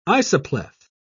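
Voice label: "isopleth", British English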